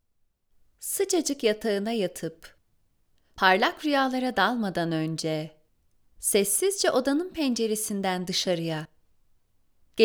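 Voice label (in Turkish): meditasyon parlayan bir yıldızda dilek tut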